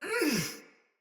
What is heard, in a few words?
Battle Female; Soldier; Barks; Sinecure Melee Mace Agent